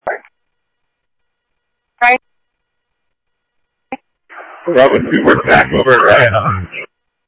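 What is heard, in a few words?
police radio dispatch, Scottsdale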